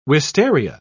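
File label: "wistaria", English, British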